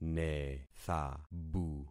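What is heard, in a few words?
"nethabu", concatenated syllables; Affixing experiment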